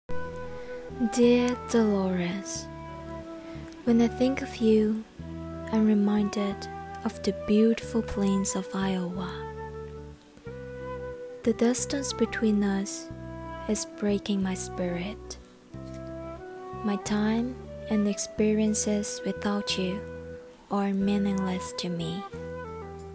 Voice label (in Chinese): 英文配音; 绿皮书